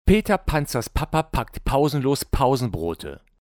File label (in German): Shure SM4 mit Popfilter 5 cm 38dB Plosivtest